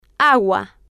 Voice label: "agua", Spanish